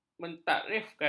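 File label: "mentakrifkan", Malay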